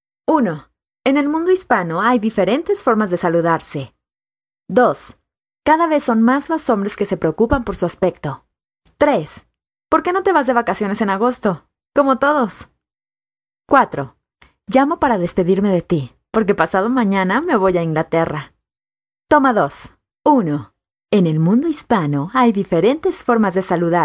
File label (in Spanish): Spanish South America, F